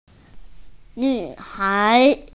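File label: "nv3hai2", Mandarin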